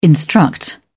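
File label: "instruct", British English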